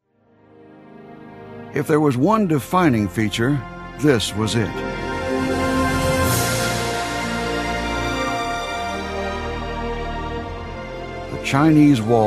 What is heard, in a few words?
Male Voice Over Narration Documentary